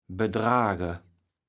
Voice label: "bedrage", kaevels platt

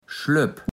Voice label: "Schlöpp", platt

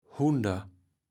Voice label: "Hunder", kaevels platt

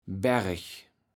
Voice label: "Bereg", kaevels platt

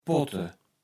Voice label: "potte", Platt

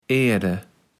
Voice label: "erde", kaevels platt

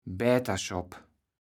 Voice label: "Bäterschopp", kaevels platt

